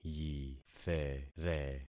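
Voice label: "yithere", concatenated syllables; Affixing experiment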